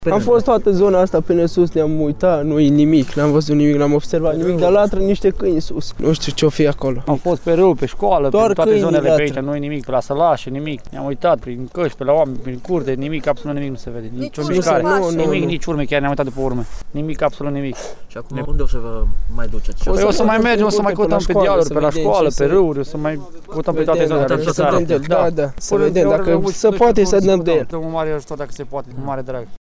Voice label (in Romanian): Vox cautatori